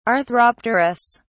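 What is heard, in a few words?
"arthropterous", English, British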